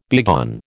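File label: "begone", American English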